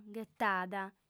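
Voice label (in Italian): "ghetada", lingua sarda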